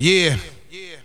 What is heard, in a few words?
"yeah" echo